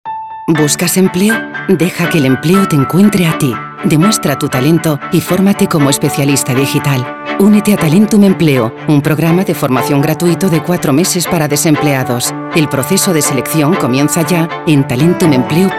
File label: spanish spain female commercial